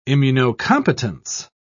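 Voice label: "immunocompetence", British English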